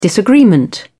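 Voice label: "disagreement", British English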